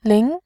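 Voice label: "lin2", Mandarin Chinese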